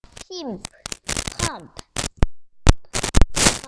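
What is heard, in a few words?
"pump", spoken word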